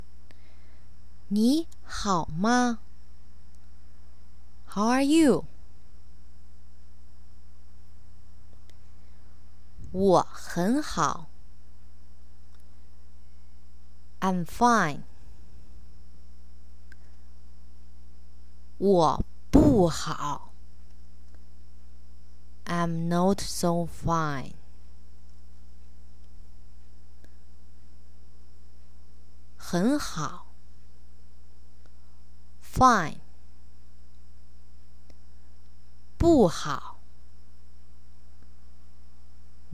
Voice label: How are You Chinese Kids; mandarin chinese